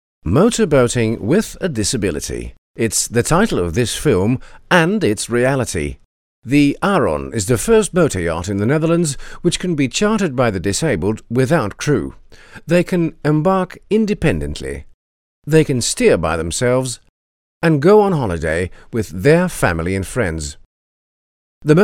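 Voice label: English UK Male, voiceover; Multilingual Voiceover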